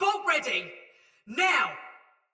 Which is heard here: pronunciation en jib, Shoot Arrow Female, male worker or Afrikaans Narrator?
Shoot Arrow Female